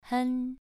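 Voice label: "hen", Mandarin Chinese, second tone, pronunciation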